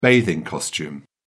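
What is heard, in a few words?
"bathing costume", British English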